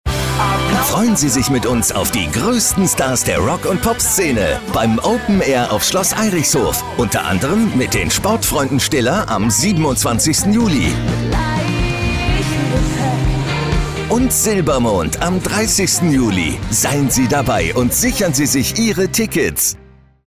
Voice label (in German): Spot; PRIMATON